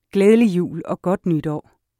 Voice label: christmas danish